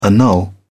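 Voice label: "annul", British English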